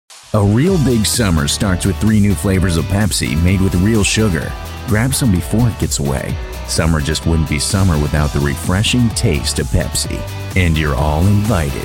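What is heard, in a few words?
commercial, Voiceover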